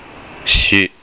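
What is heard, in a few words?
"shi", Japanese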